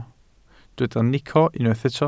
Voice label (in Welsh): "nico", Welsh